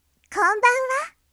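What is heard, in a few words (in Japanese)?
"konbanwa", Japanese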